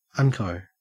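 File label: "unco", Australian English; pronunciations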